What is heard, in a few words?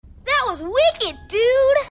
wicked dude; disney; buddies; spooky; bdawg